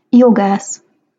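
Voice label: "jogasz", Hungarian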